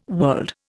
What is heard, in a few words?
"world", Indian